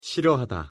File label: "싫어하다", Korean; pronunciation